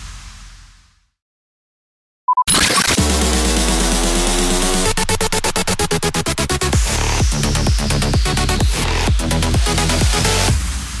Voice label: SWEEPER ONE STATION